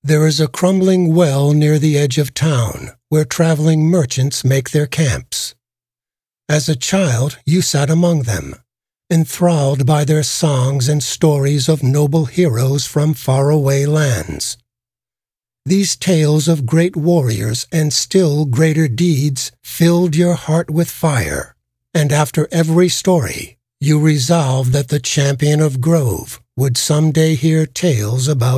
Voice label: narrator; Fate The Cursed King